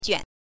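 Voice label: "juan", Mandarin Chinese, neutral tone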